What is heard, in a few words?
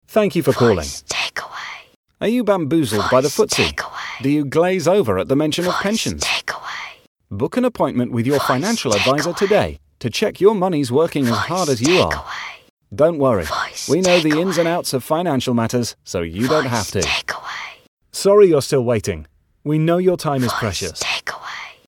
Financial Advisers Smooth Male